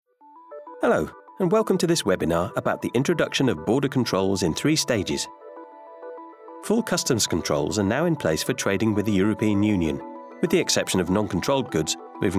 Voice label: Elearning

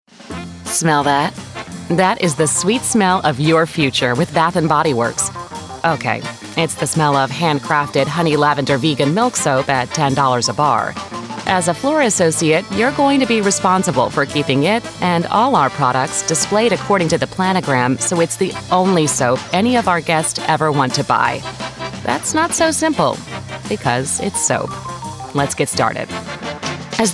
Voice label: eLearning

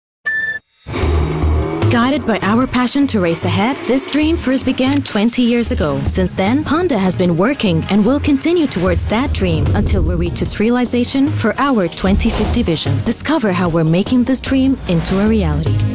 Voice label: Neutral English Ad; Honda

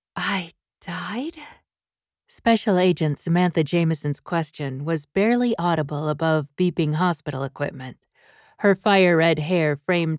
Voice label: Thriller 3rd Person

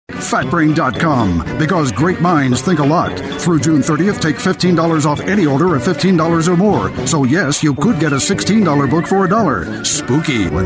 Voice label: commercial mix

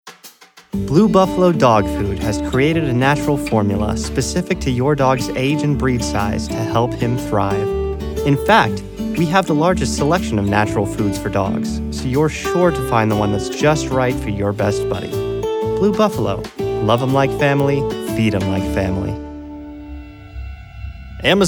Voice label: commercial voice

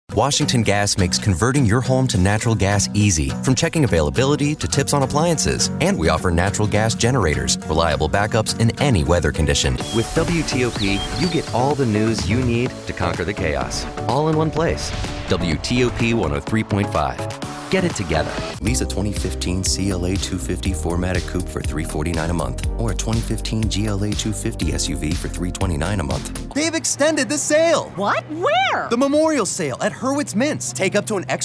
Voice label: Commercials

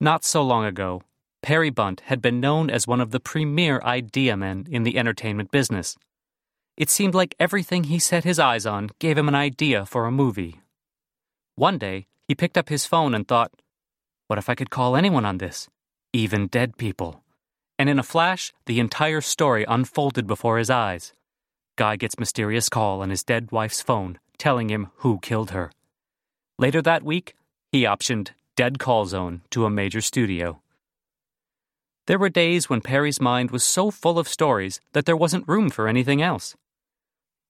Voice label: Audiobook narration

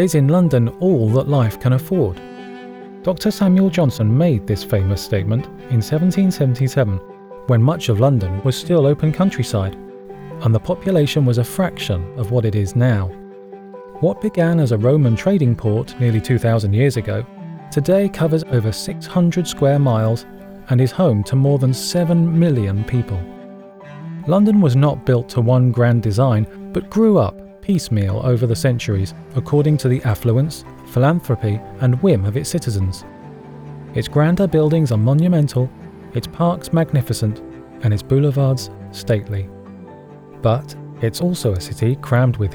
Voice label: Narrative Reel

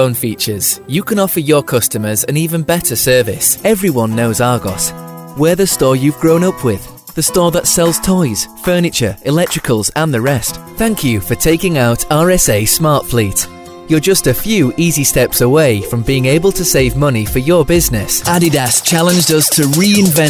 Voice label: Corporate Voice Over

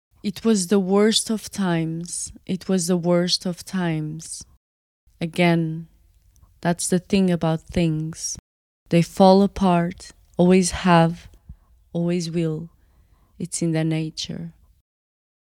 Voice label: audiobook Sample

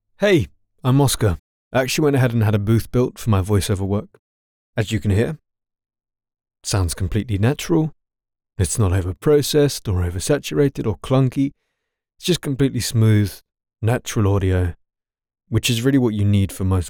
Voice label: VO Studio Quality

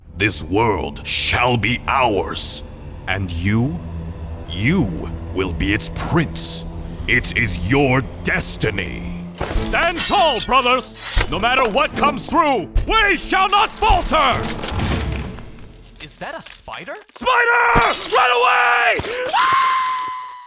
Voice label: Character

